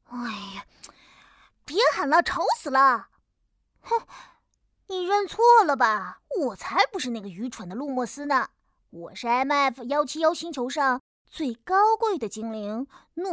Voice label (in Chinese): 音频男童精灵; 诺克斯